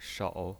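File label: "shou3", Mandarin pinyin voice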